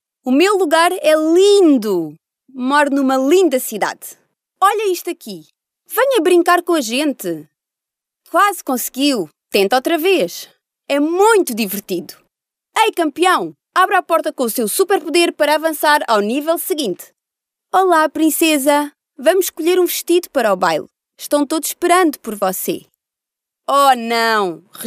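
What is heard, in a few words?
PT female children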